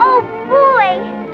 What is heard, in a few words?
"oh boy", boy